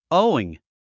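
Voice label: "owing", English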